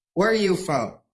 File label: Voice Acting, es